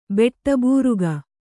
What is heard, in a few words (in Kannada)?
"ಬೆಟ್ಟಬೂರುಗ", Kannada